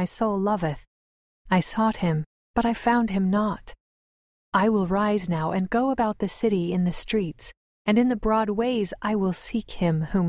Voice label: the song of solomon female voice; the old testament